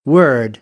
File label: "word", American English; ɝː - ɜː